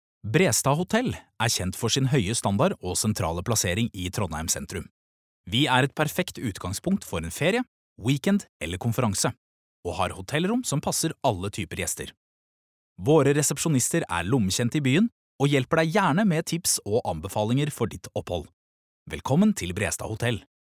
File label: no informativ, norwegian, male